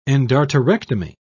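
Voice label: "endarterectomy", British English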